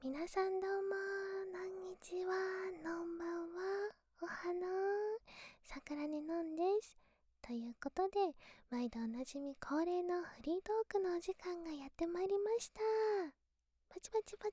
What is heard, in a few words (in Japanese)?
まったりフリートーク, ASMR